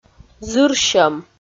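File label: "зырсьӧм", Komi-Zyrian, female; audio dict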